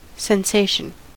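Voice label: "sensation", American English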